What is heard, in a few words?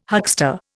"huckster", Indian